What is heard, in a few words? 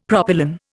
"propylon", Indian; Dictionary